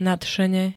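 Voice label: "nadšene", Slovak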